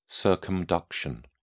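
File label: "circumduction", British English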